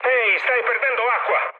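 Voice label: Italian damaged water mood med, speech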